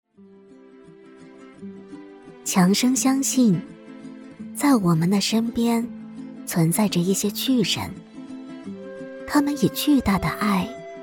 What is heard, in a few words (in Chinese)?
甜美 舒缓; 强生因爱而生